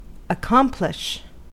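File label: "accomplish", American English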